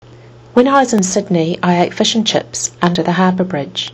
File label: when i was in sydney, kiwi english